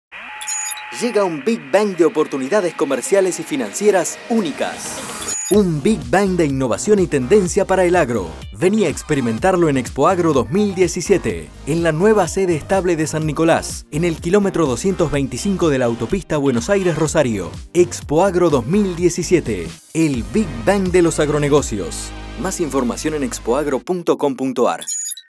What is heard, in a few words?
Spot Radio; Expoagro 2017; Institucional